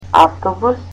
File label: "bus", Macedonian